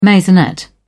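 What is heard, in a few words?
"maisonette", British English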